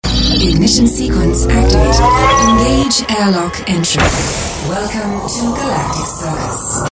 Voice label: Robot